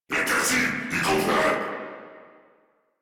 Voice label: Player Spotted, Battle Barks

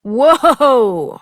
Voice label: Horse Stop Adult; Duviri

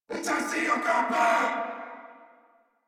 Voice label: Player Spotted Corpus Venus Male, en; Warframe; Battle Barks

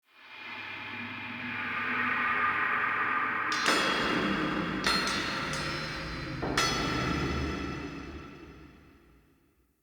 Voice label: Warrior Echo, Ambience, Crypt; Champion Crypt

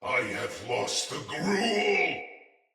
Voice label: Sight Lost Shout, Barks Battle Soldier; Sinecure Soldier

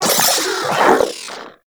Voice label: Vocal Death; Warframe; Corpus; Arisoctrats; Mini Moa

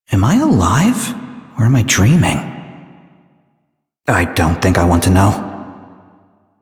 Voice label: Citizen Male, en, Mall; Warframe; Citizens

